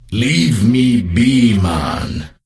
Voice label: NPC Death Knight Troll Male Farewell